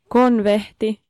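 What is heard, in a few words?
Finnish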